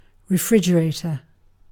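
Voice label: "refrigerator", British English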